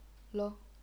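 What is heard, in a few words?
"lou", neutral tone, chinese pinyin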